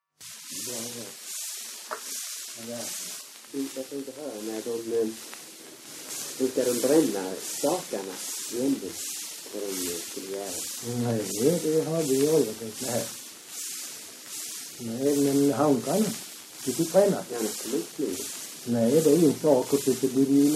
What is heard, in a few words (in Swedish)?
Öljehult, dialekter; svenska sagor